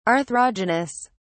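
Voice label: "arthrogenous", English, British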